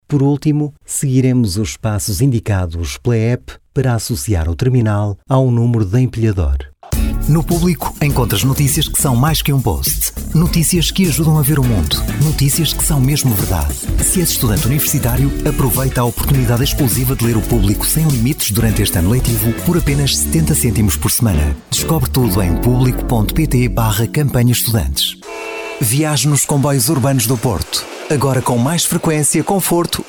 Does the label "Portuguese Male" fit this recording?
Yes